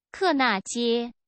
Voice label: mandarin; club street; singapore